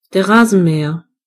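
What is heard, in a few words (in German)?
"mower", German; gcompris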